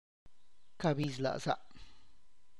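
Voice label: I am thirsty lit my heart is drying, Tlacochahuaya Zapotec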